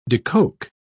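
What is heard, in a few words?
"decoke", British English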